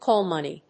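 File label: "call money", English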